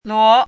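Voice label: "luó", Mandarin Chinese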